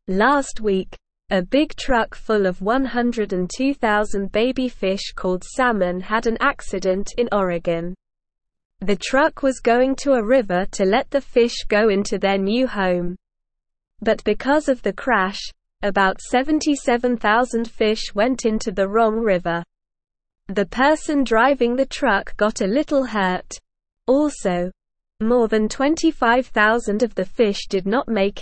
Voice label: English Beginner SLOW Reading; English Newsroom; Big Truck Accident Sends Baby Fish to Wrong River